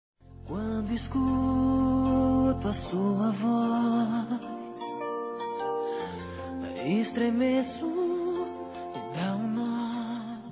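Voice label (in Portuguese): MENSAGEM P-MÃE-Feminino; Telemensagens; Telemensagens 2017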